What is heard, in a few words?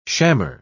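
"shammer", British English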